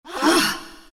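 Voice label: pain, Chinese; dota2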